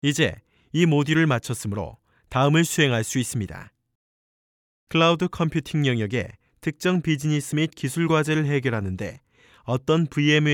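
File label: korean male narration; voice demos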